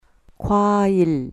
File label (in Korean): "kwail", Korean